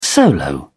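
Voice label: "solo", English, British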